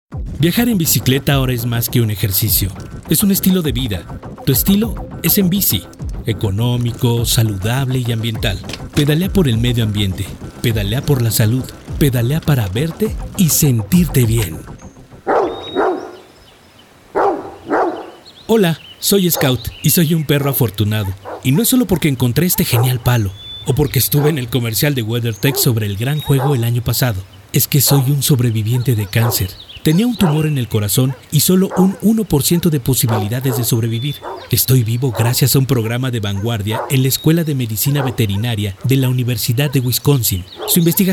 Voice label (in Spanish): Neutro